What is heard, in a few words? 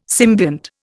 "symbiont", Indian